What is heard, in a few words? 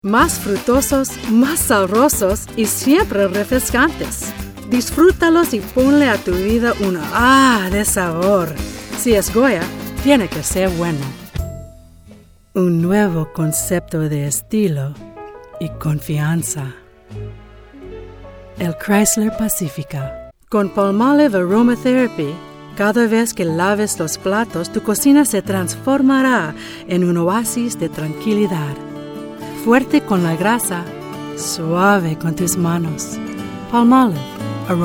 Spanish